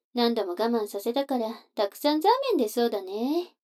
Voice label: 女の子 台詞

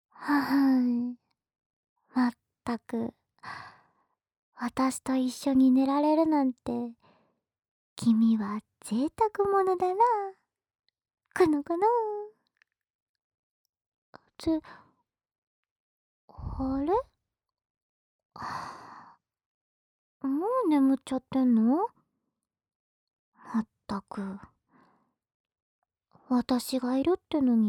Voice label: 添い寝, ASMR

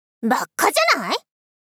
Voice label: ばっかじゃない！? 少女×中音域

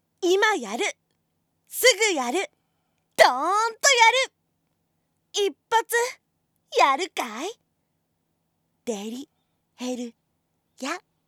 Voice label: タイトルコール, 関西風; とらこ; 関西風プク弁イケイケかぶきっ虎

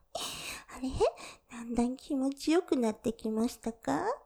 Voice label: 手コキ, ASMR; あれ?だんだん気持ちよくなってきました?ｗ; ループで楽しむ！シェフの気まぐれ抜き抜きコース; モノラル

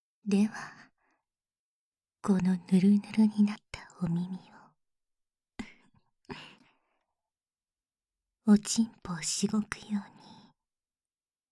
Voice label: カウントダウン囁き耳コキ, バイノーラルマイク 効果音なし

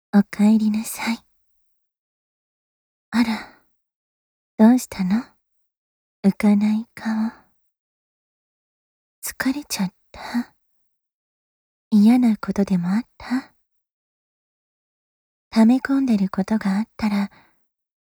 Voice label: おだやかママ 甘やかしボイス

